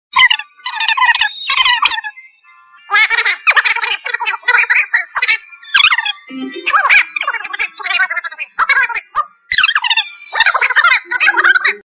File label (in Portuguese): toque vozes engracadas